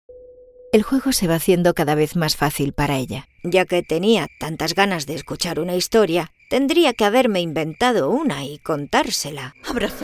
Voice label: Spanish Spain

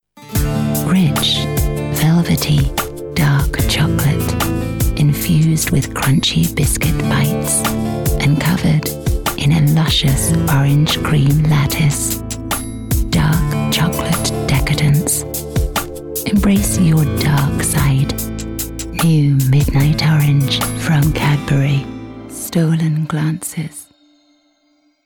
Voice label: English United Kingdom

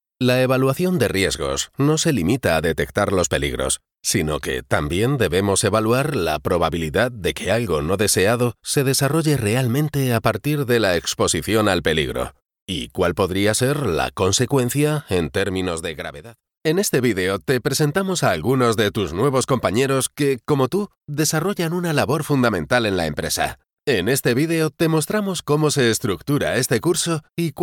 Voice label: Spanish Spain

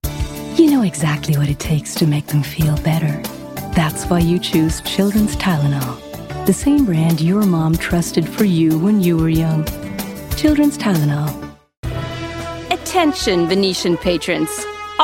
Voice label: English USA

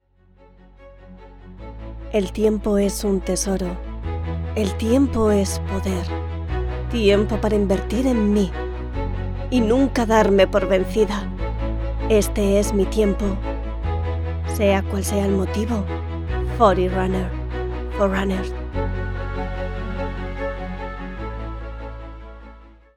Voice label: Spanish Spain

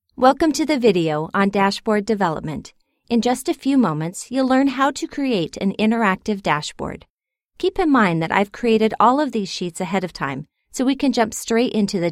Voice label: English Neutral

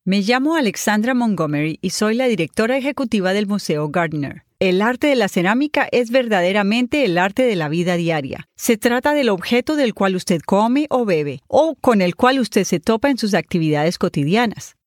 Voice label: Spanish Latin

